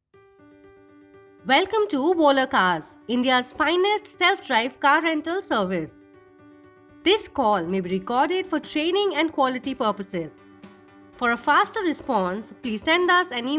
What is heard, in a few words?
Eng IVR